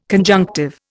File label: "conjunctive", Indian